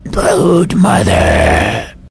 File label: wound, vort speech; hl2